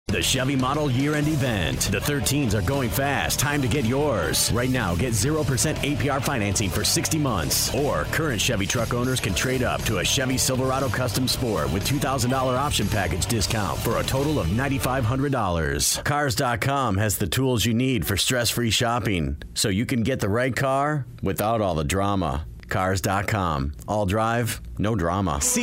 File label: Commercial Male